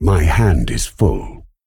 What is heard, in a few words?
Male Dragon Emote ERROR HAND FULL